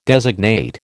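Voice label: "designate", American English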